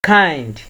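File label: "kind", Nigerian Pidgin, male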